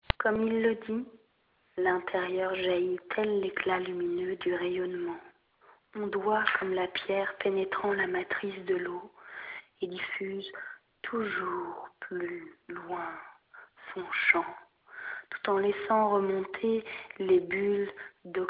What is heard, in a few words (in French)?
message telephoniques